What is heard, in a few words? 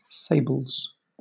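"sables", British English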